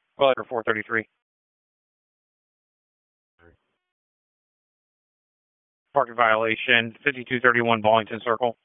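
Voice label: Police Southeast District Dispatch; Raleigh; p25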